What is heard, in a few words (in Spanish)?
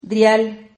"drial", Silabas